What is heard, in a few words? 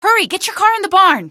get car in barn, female; Source Engine; video game; coast